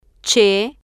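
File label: "ch", Spanish, female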